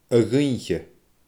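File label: "a dhoidhche", Scottish Gaelic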